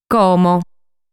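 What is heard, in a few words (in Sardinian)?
"Como", Sardinian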